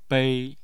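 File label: "bei", Chinese, first tone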